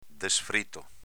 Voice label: "desfrito", dialetto veneto; s.m. soffritto

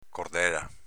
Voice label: "cordela", dialetto veneto; cordèla s.f. nastro

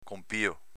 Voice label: "conpio", dialetto veneto

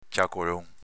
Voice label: "ciacolon", dialetto veneto; agg. chiacchierone

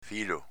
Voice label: "filo", Veneto

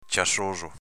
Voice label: ciassoso, sgargiante, coloratissimo

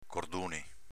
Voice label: "corduni", dialetto veneto

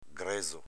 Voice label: "grezo", Veneto; agg. grezzo, rozzo

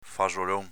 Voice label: "fasolon", dialetto veneto; s.m. tontolone

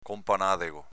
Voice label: "conpanadego", dialetto veneto

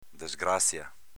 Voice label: "desgrazia", Veneto

